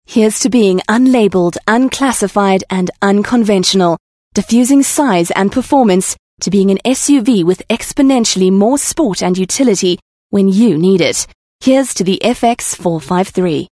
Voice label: English Softsell

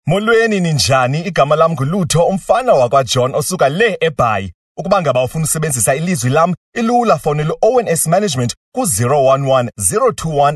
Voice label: Xhosa Conversational

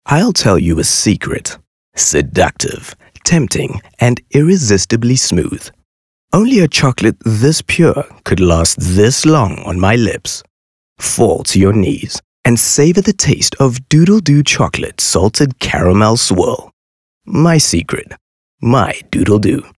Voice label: English Intimate

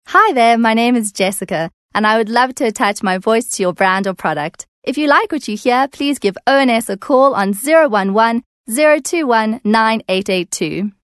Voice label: English Conversational

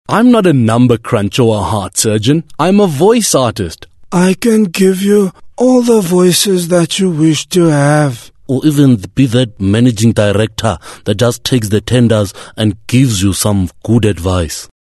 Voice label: English; Montage of Skills

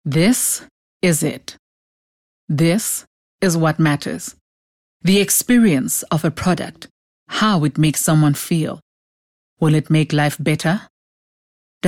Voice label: English Corporate; OSM Talent

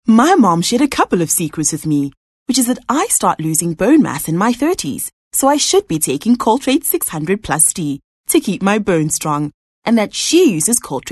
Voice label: English conversational

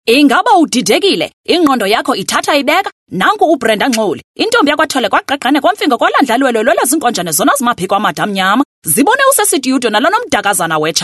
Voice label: Xhosa hardsell

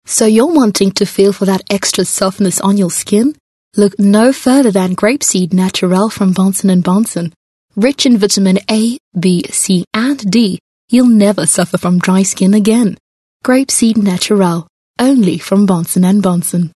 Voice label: English Softsell

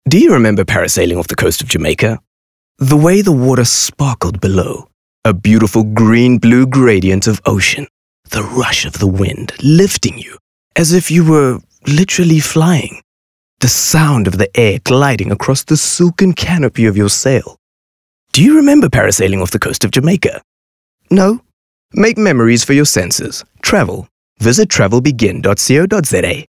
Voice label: English conversational

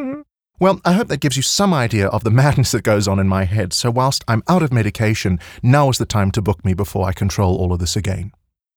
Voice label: Montage of Accents; OSm Talent

